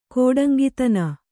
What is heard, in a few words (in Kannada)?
"ಕೋಡಂಗಿತನ", Kannada